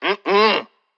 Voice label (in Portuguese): Negaçao intensa